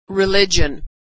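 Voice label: "religion", American English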